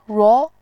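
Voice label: "ruo", Mandarin Chinese, second tone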